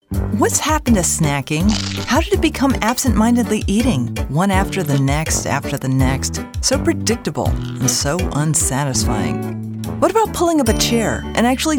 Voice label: english us and canada female commercial